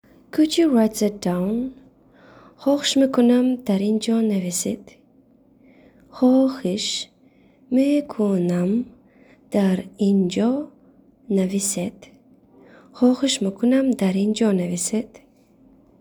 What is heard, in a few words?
Could You Write That Down Tajik